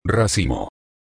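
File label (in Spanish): "racimo", español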